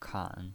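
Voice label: "kan", third tone, pinyin voice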